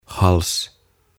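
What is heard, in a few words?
"Hals", Swedish; Kaula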